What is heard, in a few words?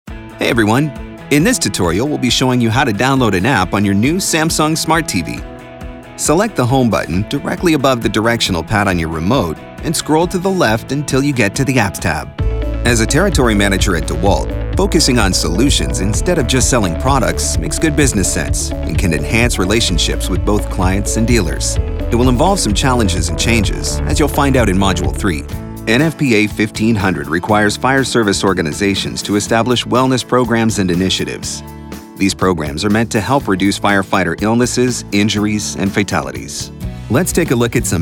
Elearning English